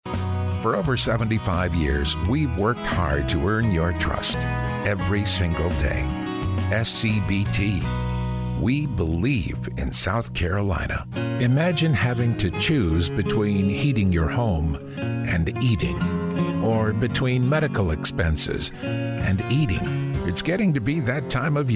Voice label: Commercial Male, Commercial Voiceover, TV and Radio; Political Campaigns